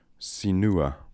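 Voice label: "Sinooa", pronunciations; Fourth Way; Beelzebub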